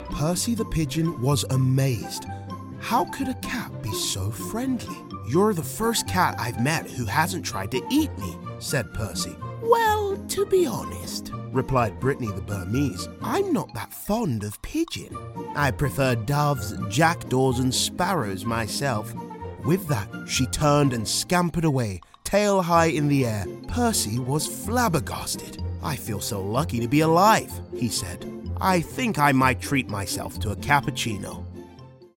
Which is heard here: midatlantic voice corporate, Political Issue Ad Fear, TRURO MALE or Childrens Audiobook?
Childrens Audiobook